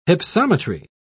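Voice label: "hypsometry", British English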